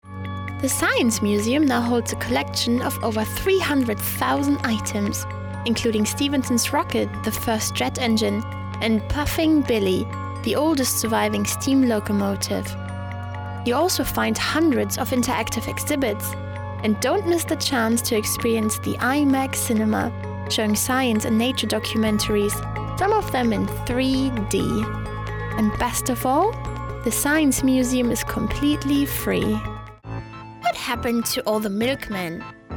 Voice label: voice engl german

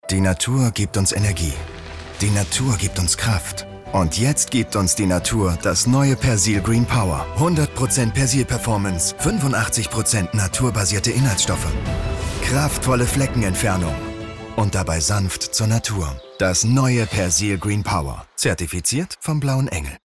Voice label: Commercial TV; DACH; Persil

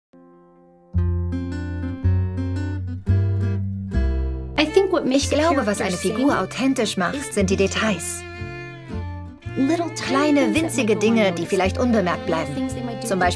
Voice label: VoiceOver; Inside Pixar

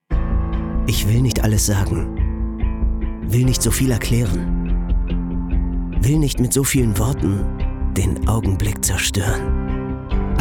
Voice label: Audiobook (Hörbuch), German

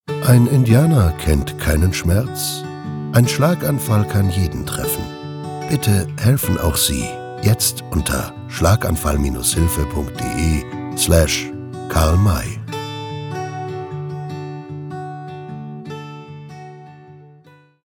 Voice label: Spot Voice; LOFT VOICES

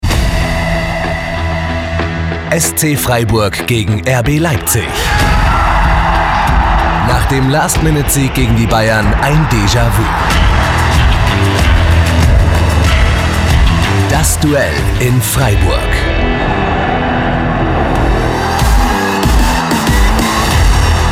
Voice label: Promotrailer; ZDF; DFB-Pokal; LOFT VOICES

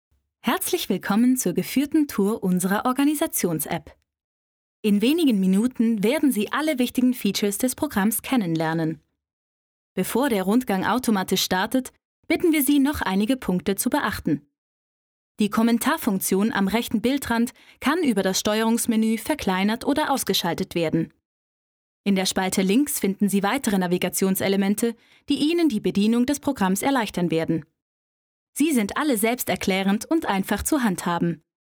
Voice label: e-learning; LOFT VOICES

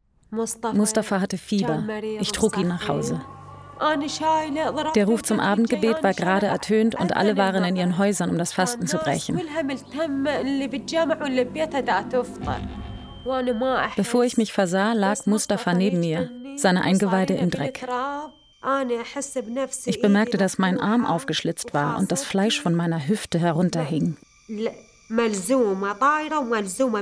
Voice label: Voice Over; Fresenius

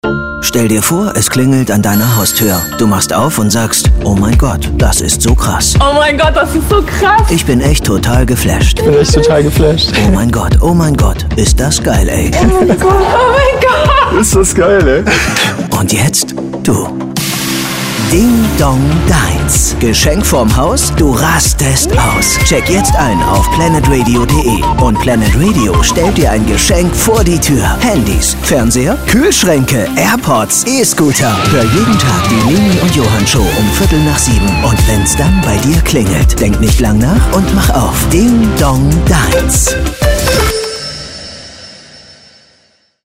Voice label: Station Voice, German

